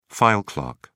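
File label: "file clerk", English, British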